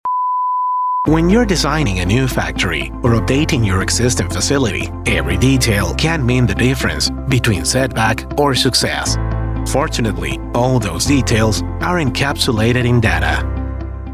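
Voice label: e-learning corporate explainer, English US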